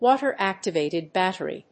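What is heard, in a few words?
"water-activated battery", English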